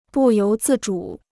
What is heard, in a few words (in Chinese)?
"不由自主", Chinese